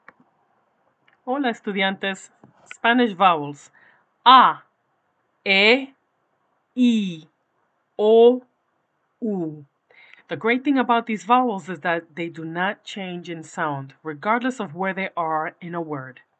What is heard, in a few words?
Spanish vowels